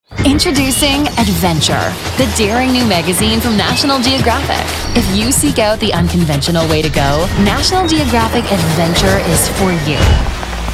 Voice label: Commercial